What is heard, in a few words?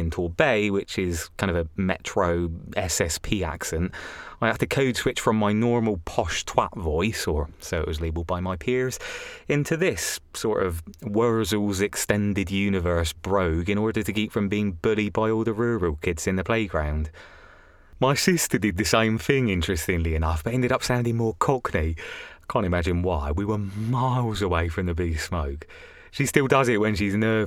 REGIONAL ACCENTS